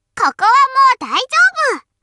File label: taunt, voice commands Anime Loli; svencoop